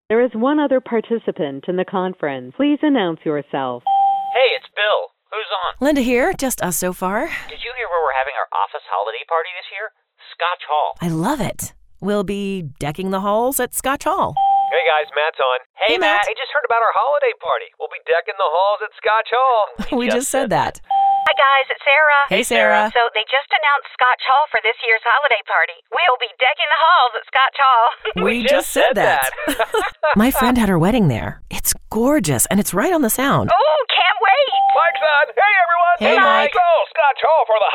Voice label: Radio; Scoth Hall Preserve Deck Halls 60